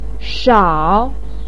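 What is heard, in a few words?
"shao", Chinese pinyin, third tone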